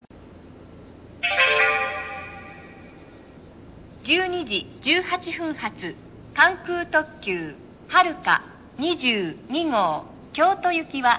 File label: kansai airport track information for kyoto, eki; track 4; haruka 22go; nishinihon